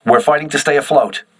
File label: voice message repairing, crew ships english